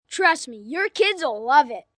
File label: Trust Me Kids Love, Sales Pitch, 1 boy, 10 yrs; Talking Sound Effects